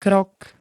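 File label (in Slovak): "krok", slovenské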